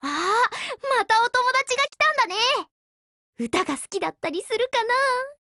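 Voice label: BUILD OVER Japanese, Voice; GF Alarm; F1 Mod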